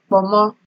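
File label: "kpomo", Nigerian Pidgin, female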